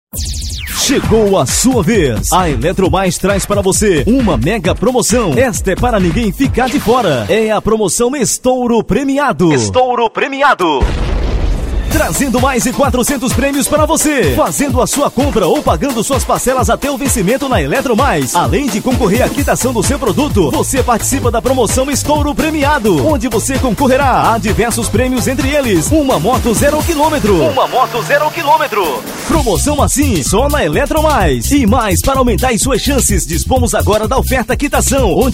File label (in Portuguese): spot promocao; eletromais